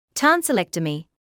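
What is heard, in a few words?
"tonsillectomy", British English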